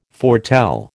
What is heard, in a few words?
"foretell", American